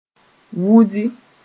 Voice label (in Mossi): "wudi", moore; to go out